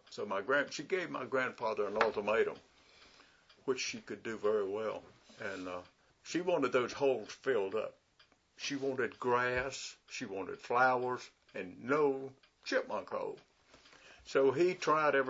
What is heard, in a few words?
chipmunks